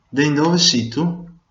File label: where from, venetian